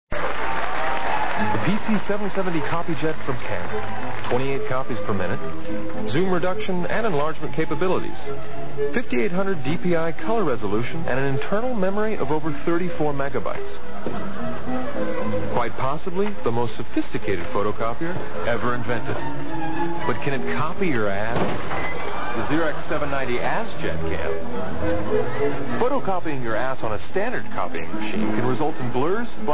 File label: Commercials; Freekin Weird Audio